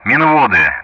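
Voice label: Speech Sound Russian; Minvody; Callsign; DCS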